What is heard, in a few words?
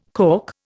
"calk", Indian